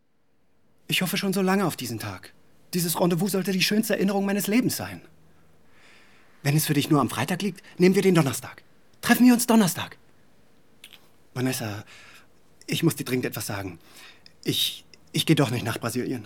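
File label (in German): Synchron französische Komoedie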